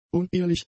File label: "unehelich", German; adjectives